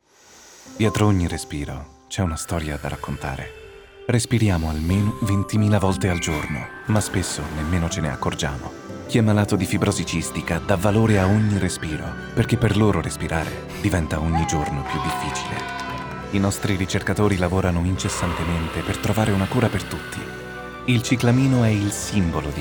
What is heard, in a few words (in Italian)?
Spot radio; FFCRicerca; Campagna Nazionale 2022